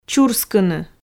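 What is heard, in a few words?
"чурскыны", Komi, female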